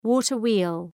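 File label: "water wheel", English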